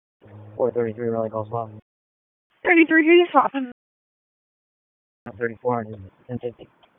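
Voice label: Raleigh Police Southeast District Dispatch, p25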